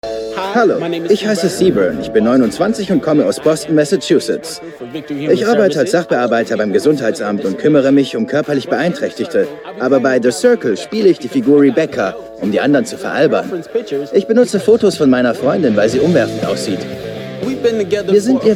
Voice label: DEUTSCH MALE Dokumentation Reality TV; The Circle; LOFT VOICES

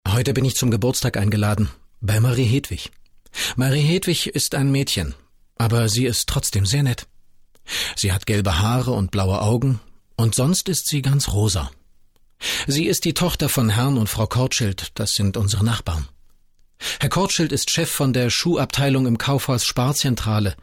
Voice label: erzaehlung

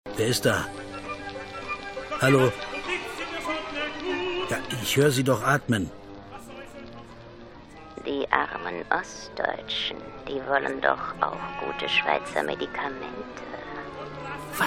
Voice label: Hörspiel; Verfluchtes Licht

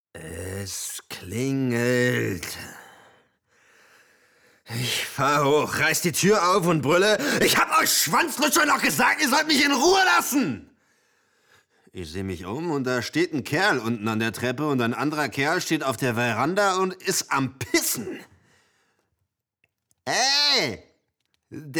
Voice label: Hörspiel

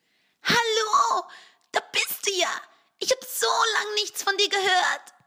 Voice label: Hallo Da bist Du ja Comic sprech; LOFT VOICES

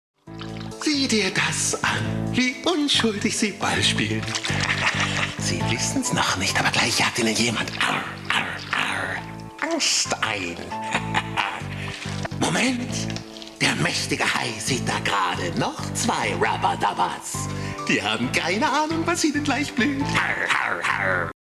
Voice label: trickstimme

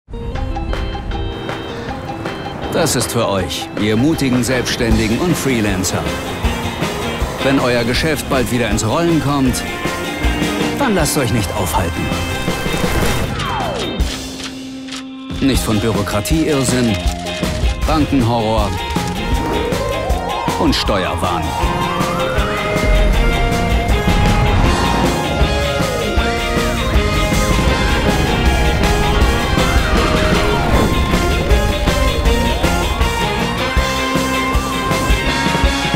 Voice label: TV Spot; lexoffice; Befrei Dich von Buchhaltung; Teste den Testsieger

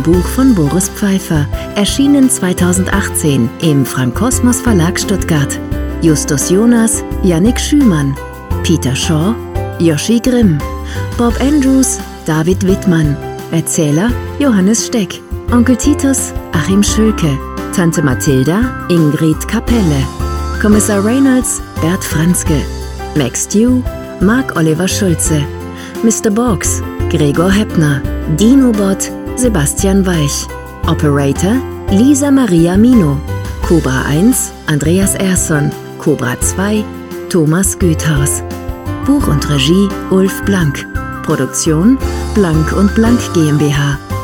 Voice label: Kids Outro; Die 3 Fragezeichen Kids

